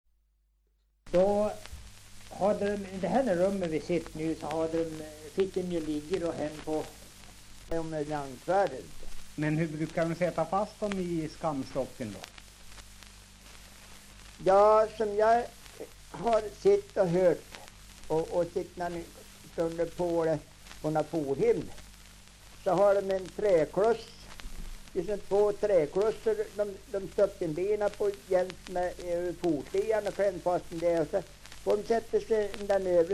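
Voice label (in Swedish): Tuna Medelpad, dialekter, svenska sagor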